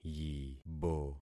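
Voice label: "yibo", concatenated syllables; Affixing experiment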